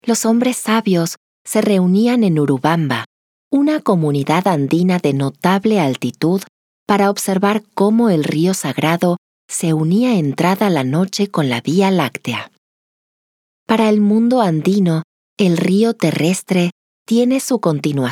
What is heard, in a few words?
Audiobook Spanish Latin American